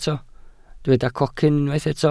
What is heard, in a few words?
"cocyn", welsh